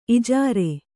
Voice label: "ಇಜಾರೆ", Kannada

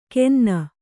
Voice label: "ಕೆನ್ನ", kannada

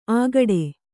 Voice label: "ಆಗಡೆ", kannada

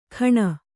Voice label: "ಖಣ", Kannada

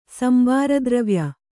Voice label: "ಸಂಬಾರದ್ರವ್ಯ", Kannada

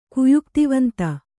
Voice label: "ಕುಯುಕ್ತಿವಂತ", Kannada

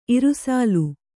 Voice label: "ಇರ್ಸಾಲ್", Kannada

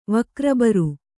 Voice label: "ವಕ್ರಬರು", Kannada

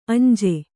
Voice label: "ಅಂಜಿ", Kannada

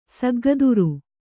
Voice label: "ಸಗ್ಗದುರು", kannada

